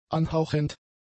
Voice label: "anhauchend", German; adjectives